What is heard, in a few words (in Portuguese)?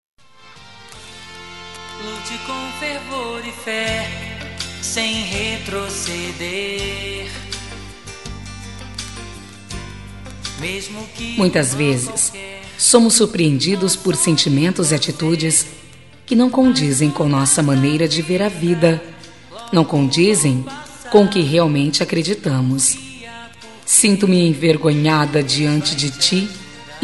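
Evangélica Com Pedido de Desculpas, female, telemensagens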